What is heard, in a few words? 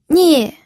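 "nie", fourth tone, pinyin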